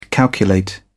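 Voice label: "calculate", English, British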